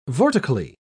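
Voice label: "vortically", British English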